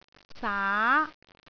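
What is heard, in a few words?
"saa2", Cantonese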